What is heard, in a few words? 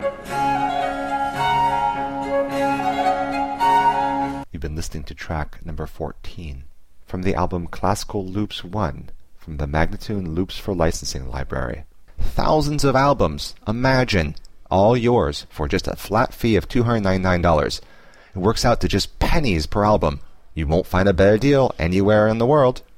american baroque spoken